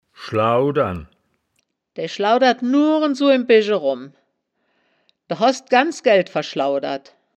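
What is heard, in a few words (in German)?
"schlau-dern", wittlicher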